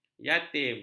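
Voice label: "yatim", Malay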